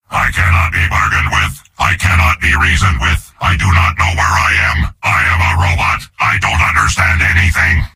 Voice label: soldier robot